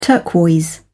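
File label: "turquoise", British English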